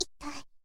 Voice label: syozyo itai